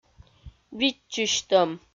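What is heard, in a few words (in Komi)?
"видзчысьтӧм", Komi, female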